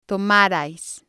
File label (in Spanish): "tomarais", spanish